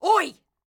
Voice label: Ranged Female Alert Line, Thug Spotted

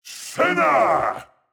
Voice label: Mid Fight Taunts; Voll; NPC; Voll Combat

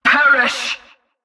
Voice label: Female Alert Line, Cultist Spotted; NPC; Heist

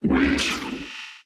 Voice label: Automaton Alert Line; NPC; Heist Robot Spotted

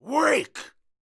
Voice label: Melee Miniboss Mid Combat Line

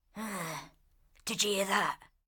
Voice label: Ranged Female Patrol Line; NPC; Heist Thug Captain Patrol

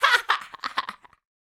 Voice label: Female Taunt, Cannibal, dialogue

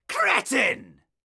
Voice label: Caster Elite Alert Line, dialogue

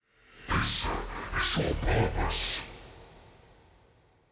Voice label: Midfight Stereo; NPC; Malachai Combat

